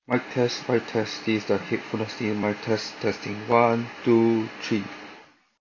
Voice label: Quiet; Technics EAH AZ60